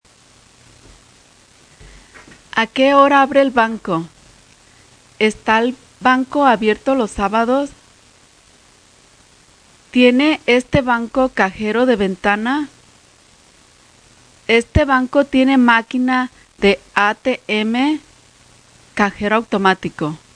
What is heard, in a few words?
banking spanish phrases